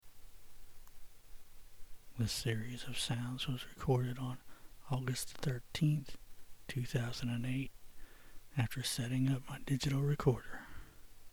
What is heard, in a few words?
growl looped and narrated